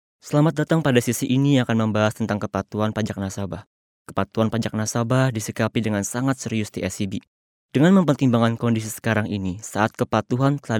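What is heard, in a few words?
Indonesian, male, voice over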